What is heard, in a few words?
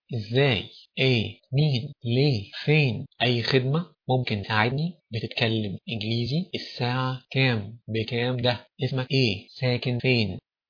egyptian; phrases